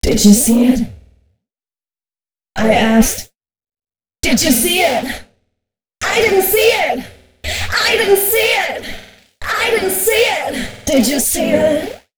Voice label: female spoken hooks, dance ultra voice